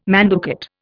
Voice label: "manducate", Indian

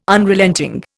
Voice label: "Unrelenting", Indian

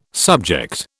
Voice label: "subject", British

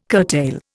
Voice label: "curtail", Indian

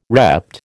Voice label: "repped", American

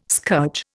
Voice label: "scourge", Indian

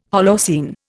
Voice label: "Holocene", Indian

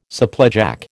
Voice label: "supplejack", American

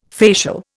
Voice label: "facial", Indian

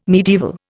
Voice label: "medieval", Indian

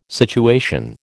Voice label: "situation", American

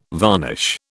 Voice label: "varnish", British

